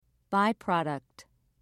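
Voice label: "byproduct", English, British English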